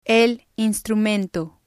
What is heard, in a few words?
"el instrumento", spanish